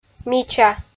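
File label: "мича" Komi, female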